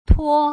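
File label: "tuo", Putonghua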